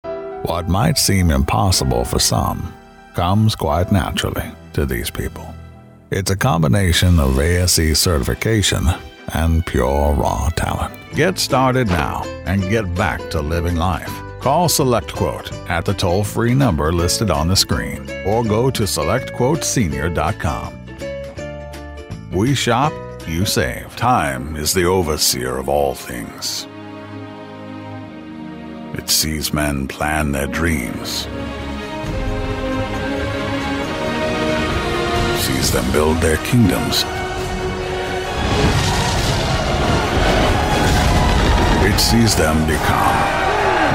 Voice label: Storyteller; Morgan Freeman

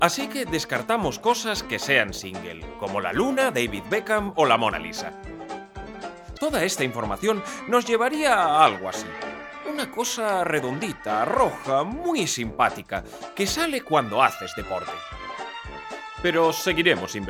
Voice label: Educational Video Humorous

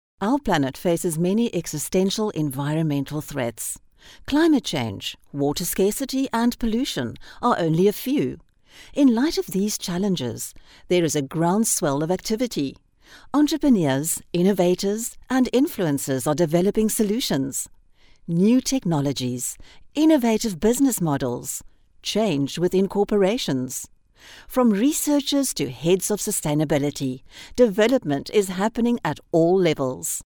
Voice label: Narration

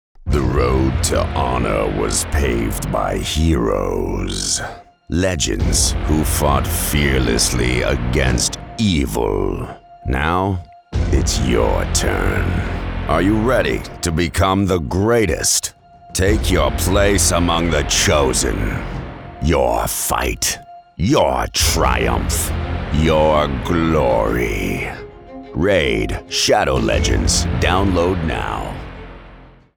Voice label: authoritative deep male voice over; raid shadow legends

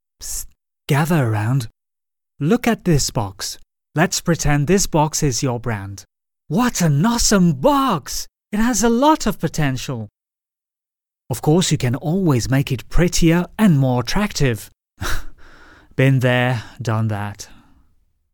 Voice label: Presentation Medium voice Smiling and ironical

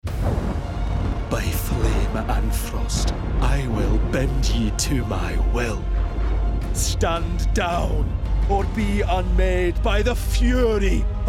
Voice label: Character Montage

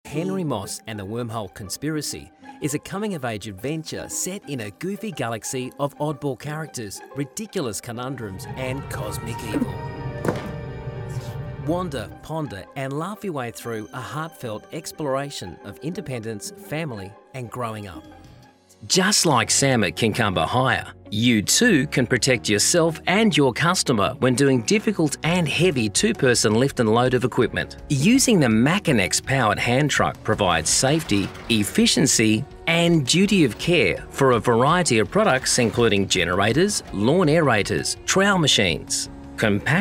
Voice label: Visual Narration

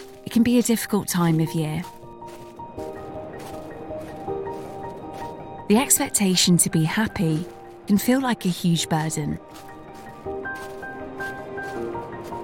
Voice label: Christmas Animation Corporate; Mednet Group

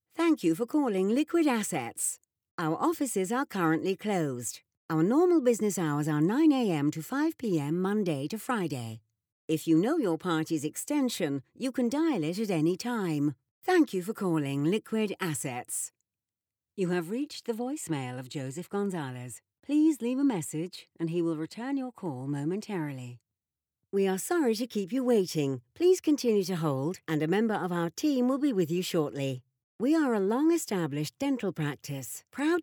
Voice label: Phone

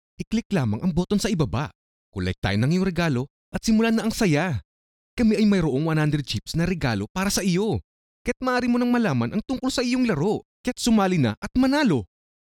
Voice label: regalo male

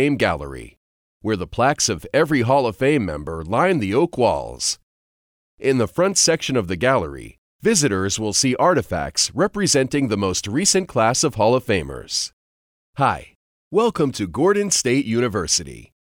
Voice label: Tour Guide

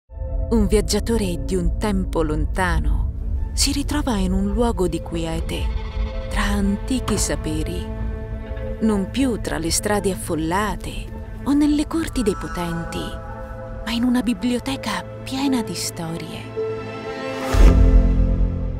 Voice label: Corporate Warm and caring

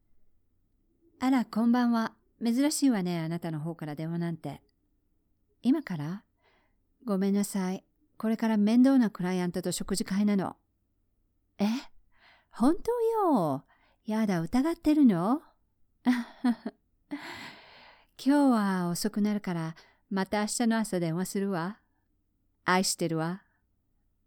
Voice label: foreign Movie Voiceover

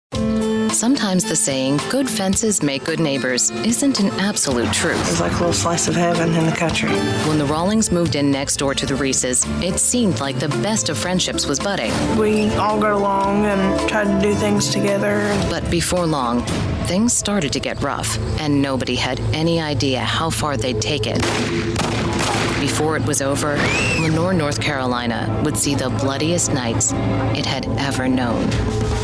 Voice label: TV Narration

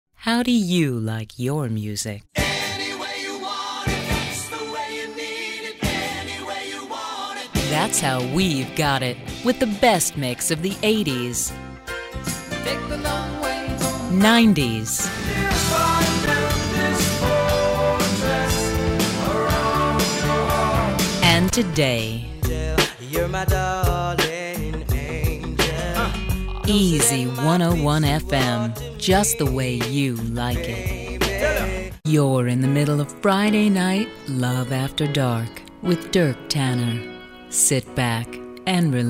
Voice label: Radio Imaging

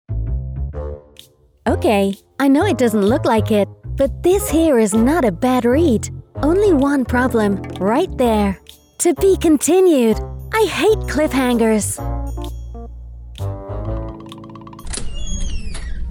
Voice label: Gaming Animation Showreel

